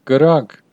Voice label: fire, armenian western

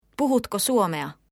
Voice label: do you speak, finnish

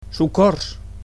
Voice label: "help", Catalan

